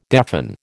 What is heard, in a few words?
"deafen", American